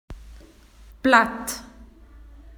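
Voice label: "leaf", Australian English; PictureDictionary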